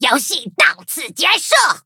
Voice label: skill zh-hant; cookie run